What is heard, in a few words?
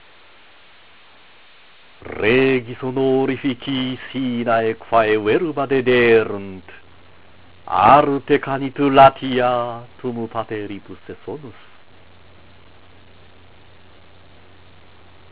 Recitatio, latin